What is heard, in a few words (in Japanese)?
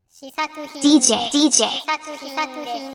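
DJの言い方（男性）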